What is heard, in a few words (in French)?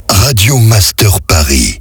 accap slow; Paris; Voix off